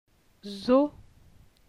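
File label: "Zoo", French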